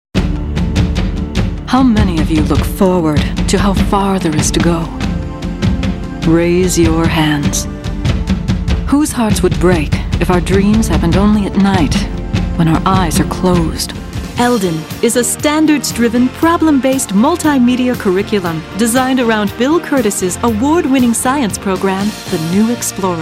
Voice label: Narrative